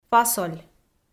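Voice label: "фасоль", Komi, female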